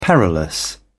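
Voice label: "perilous", British English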